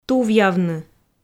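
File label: "тувъявны", Komi, female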